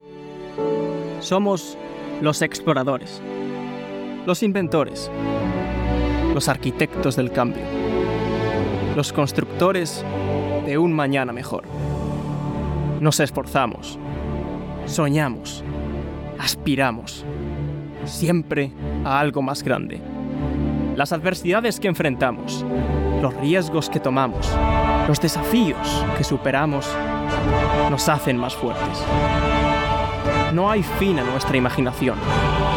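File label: Spanish European M Gaming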